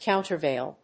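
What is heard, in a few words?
"countervail", English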